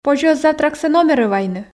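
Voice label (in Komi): позьӧ оз завтраксӧ номерӧ вайны, Komi, female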